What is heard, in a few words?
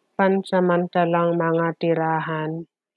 Tagalog